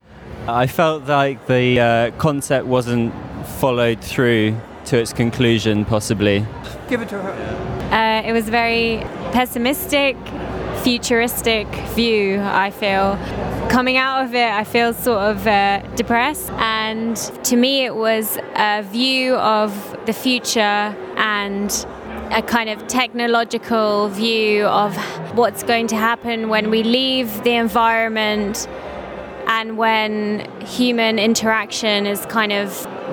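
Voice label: 1 female 1 male English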